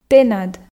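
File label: "тэнад", Komi, female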